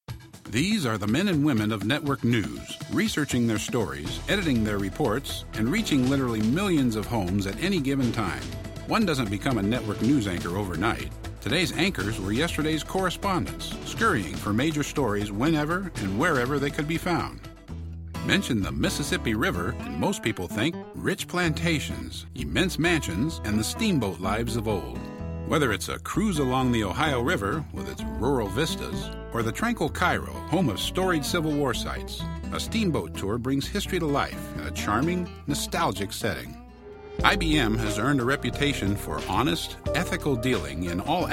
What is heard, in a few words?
Narrative